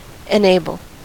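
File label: "enable", US English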